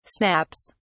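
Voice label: "snaps", British English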